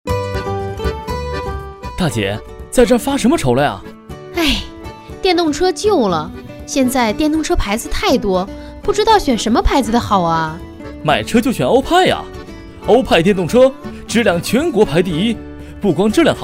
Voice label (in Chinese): 电动车情景广告